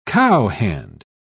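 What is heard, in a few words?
"cowhand", British English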